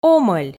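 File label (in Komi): "омӧль", Komi, female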